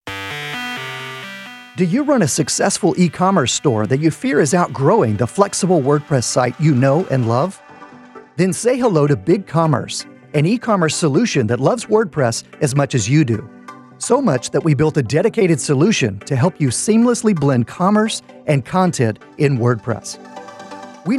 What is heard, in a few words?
Promo Narration plus Music; Intro to BC4WP